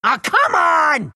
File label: anger; Meepo